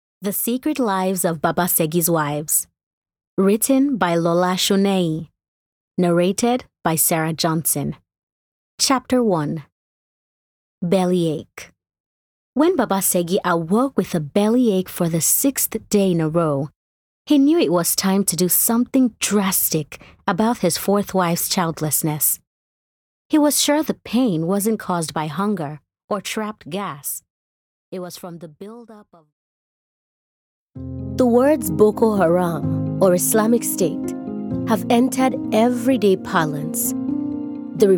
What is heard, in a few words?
Audiobook Narration Fiction Nigerian Accent